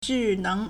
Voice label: "zhi4 neng2", Chinese